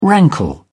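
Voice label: "rankle", British English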